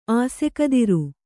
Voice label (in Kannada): "ಆಸೆಕದಿರು", Kannada